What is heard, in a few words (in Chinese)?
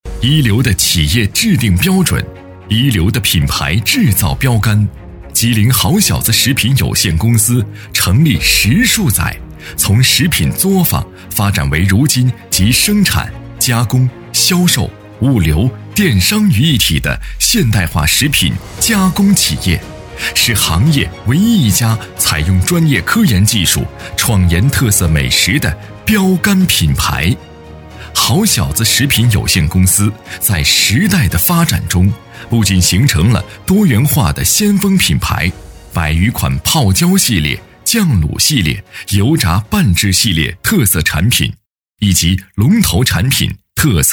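企业宣传 食品大气有起伏, 普通话, 男; 声音特点大气浑厚且富有磁性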